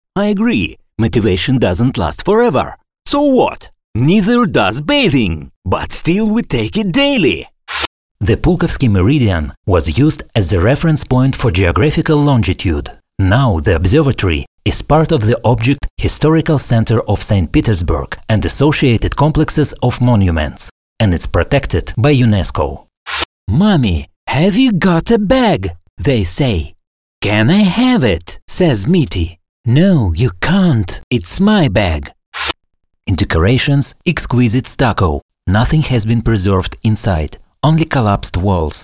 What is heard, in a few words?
english